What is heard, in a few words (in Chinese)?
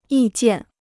"意见", Chinese